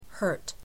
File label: hurt